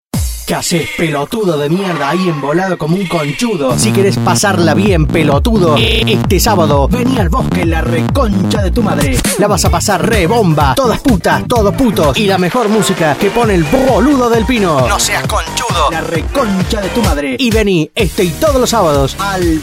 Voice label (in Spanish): Puteadas; Boliche